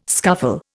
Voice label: "scuffle", Indian

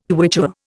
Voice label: "Quichua", Indian

